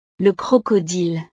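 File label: "le crocodile", French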